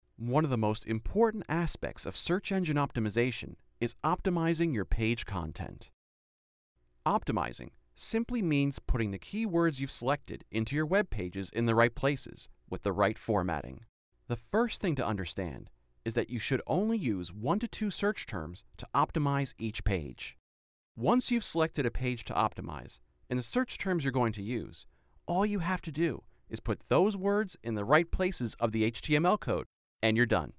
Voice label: Tech Explainer